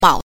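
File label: "mao", Mandarin Chinese pinyin, neutral tone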